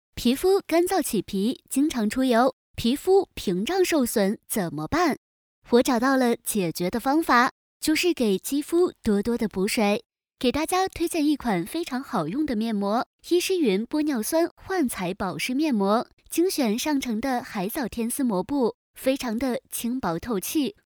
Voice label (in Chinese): 甜美廣告